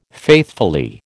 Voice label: "faithfully", American

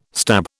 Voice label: "stab", British

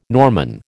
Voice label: "Norman", American

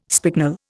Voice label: "spicknel", Indian

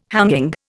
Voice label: "hanging", Indian

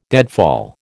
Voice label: "deadfall", American

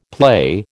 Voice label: "play", American

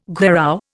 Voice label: "gherao", Indian

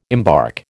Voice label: "embark", American

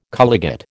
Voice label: "colligate", American

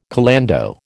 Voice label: "calando", American

